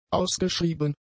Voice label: "ausgeschrieben", German; adjectives

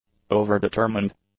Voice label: "over-determined", English; adjectives

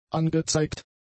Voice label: "angezeigt", German; adjectives

